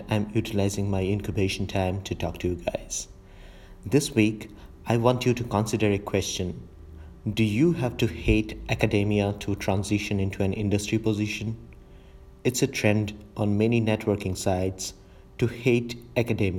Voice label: Podcast; STEM Peers